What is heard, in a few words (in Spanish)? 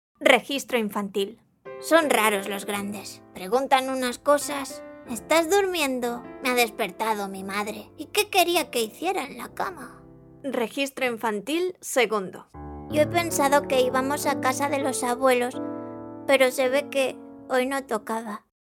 muestras registro infantil